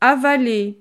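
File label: "avaler", French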